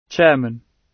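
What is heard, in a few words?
"chairman", British English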